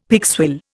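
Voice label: "pigswill", Indian English; Dictionary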